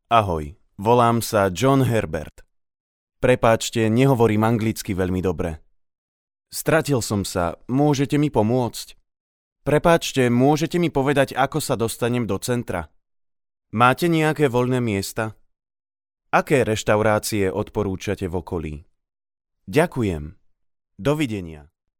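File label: Slovak, male, narration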